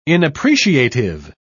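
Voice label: "inappreciative", British English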